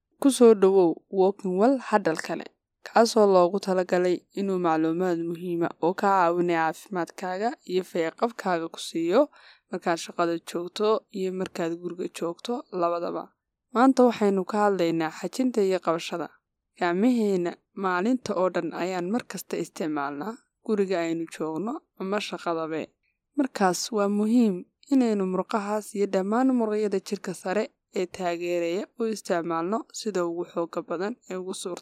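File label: Somali female voice over, narrative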